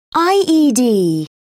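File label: "ied", English, British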